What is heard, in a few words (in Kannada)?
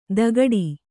"ದಗಡಿ", Kannada